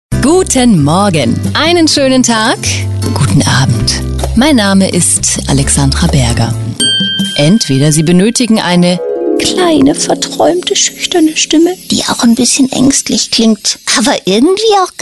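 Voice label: Dialekte, Imitation, German, Swiss, Bayrisch, Fränkisch, Norddeutsch, Rheinisch, Sächsisch, Schwäbisch, Schweizerdeutsch, Wienerisch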